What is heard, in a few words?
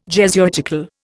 "Jesuitical", Indian